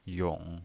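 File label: "yong" Mandarin Chinese pinyin voice, third tone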